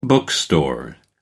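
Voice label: "bookstore", British English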